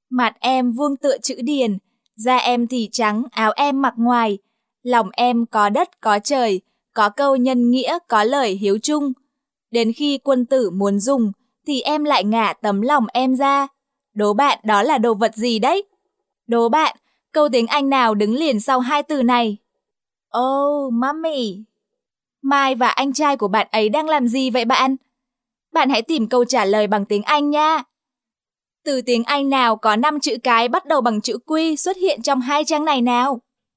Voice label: vietnamese female; dictor-sounds

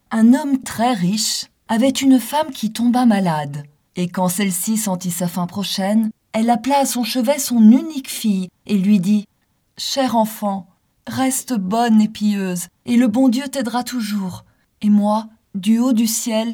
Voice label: french female; dictor; dictor-sounds

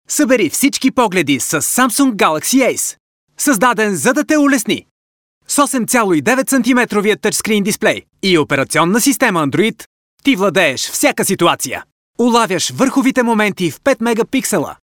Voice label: bulgarian male; dictor; dictor-sounds

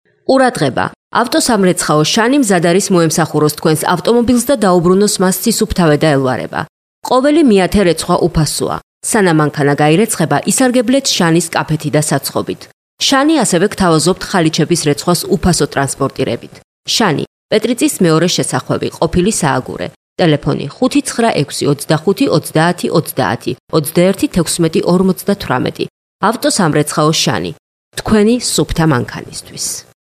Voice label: georgian female; dictor; dictor-sounds

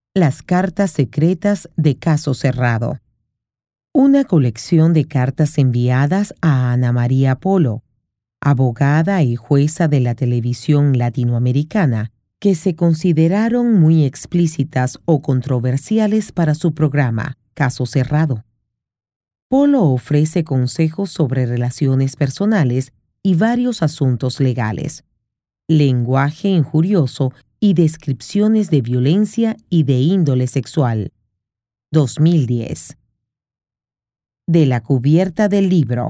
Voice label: mexican female; dictor-sounds

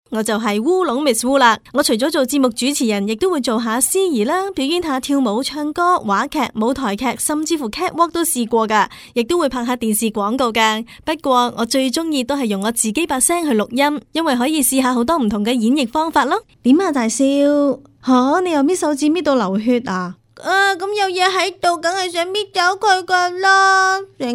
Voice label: cantonese female; dictor

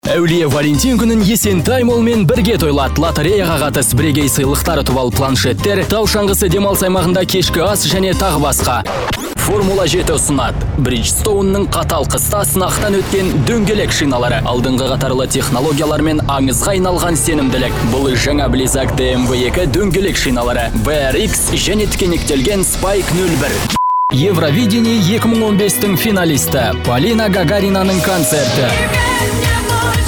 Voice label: kazakh male; dictor; dictor-sounds

